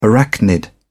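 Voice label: "arachnid", British English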